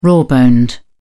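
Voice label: "raw boned", British English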